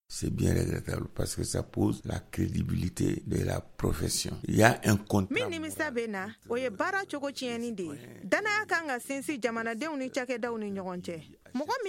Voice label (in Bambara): magazine bamanankan